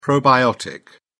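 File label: "probiotic", British English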